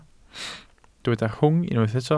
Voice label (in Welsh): "rhwng", Welsh